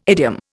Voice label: "idiom", Indian